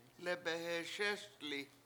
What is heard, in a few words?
"lebeheSESli7", washo